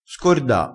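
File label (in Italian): "scorda", dialettale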